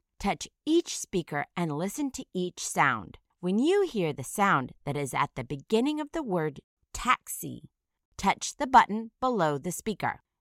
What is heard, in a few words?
"taxi", initial phoneme isolation